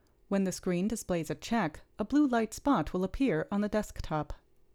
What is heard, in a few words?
Gong Voiceover